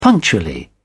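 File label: "punctually", British English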